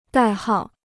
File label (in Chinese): "代号", Chinese